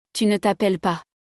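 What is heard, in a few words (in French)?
"tu ne t appelles pas", French; conjugaison; le verbe s'appeler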